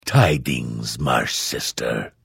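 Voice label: Hello, Vo; Morrowind GOTY GOG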